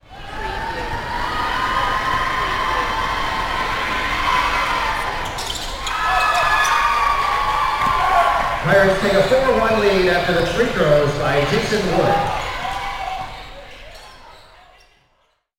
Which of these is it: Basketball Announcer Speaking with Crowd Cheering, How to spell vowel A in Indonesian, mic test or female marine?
Basketball Announcer Speaking with Crowd Cheering